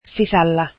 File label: finnish in